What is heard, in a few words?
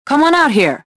suspicious; XBOX